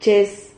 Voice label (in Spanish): "ches", Silabas